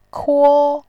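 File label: "kuo1", Mandarin Chinese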